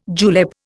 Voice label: "julep", Indian

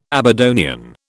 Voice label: "Aberdonian", British

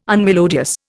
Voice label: "unmelodious", Indian

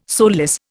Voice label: "soulless", Indian

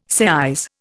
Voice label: "seise", Indian

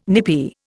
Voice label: "nippy", Indian

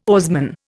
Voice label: "oarsman", Indian

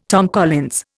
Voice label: "Tom Collins", Indian

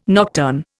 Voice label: "nocturne", Indian

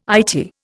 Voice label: "Eyetie", Indian

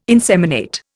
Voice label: "inseminate", Indian

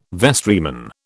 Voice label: "vestryman", British

